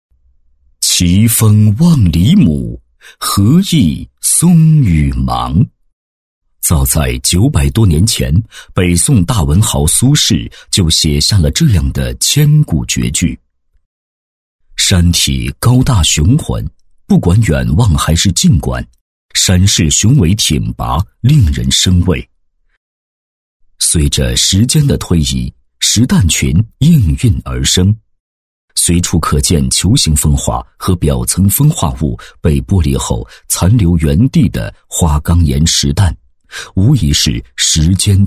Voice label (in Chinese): 男 景区宣传片 大气醇厚; 高端厚重; 沉稳大气; 黎母山